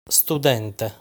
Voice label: "Studente", Italian